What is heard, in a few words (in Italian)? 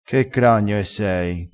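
"cragno", dialettale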